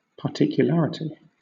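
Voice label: "particularity", British English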